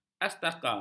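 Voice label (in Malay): "astaka", Malay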